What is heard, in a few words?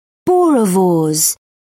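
"boerewors", British English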